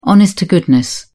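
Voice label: "honest to goodness", British English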